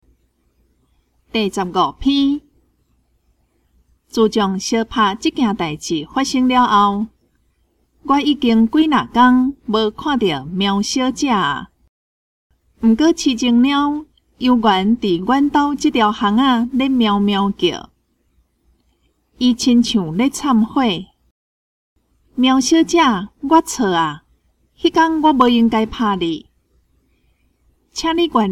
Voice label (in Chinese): 文章朗讀; 閩南語; 閩南語語言能力認證; 金安咱來考C